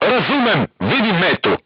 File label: voice message target lock, crew tanks serbian